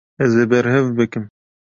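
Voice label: kurdish; ez e berhev bikim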